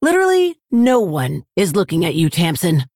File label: Female Orc